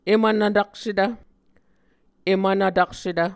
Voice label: "em vnvtaksetv", Muscogee